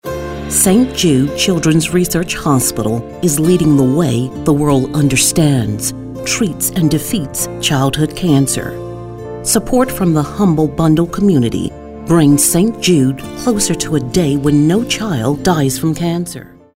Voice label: caring